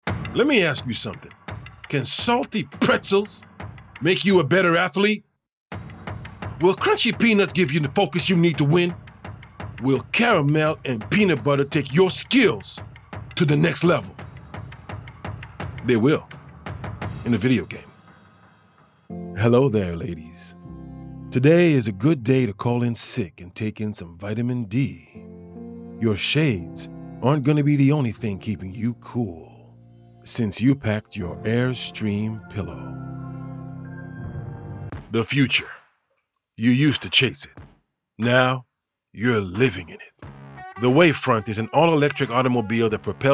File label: Voice Demo